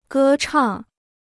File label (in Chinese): "歌唱", Chinese